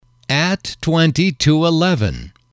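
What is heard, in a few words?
"at twenty to eleven", English, male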